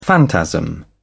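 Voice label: "phantasm", English, British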